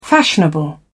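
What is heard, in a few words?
"fashionable", British English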